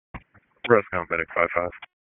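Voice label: EMS DISP, radio; wake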